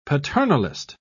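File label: "paternalist", British English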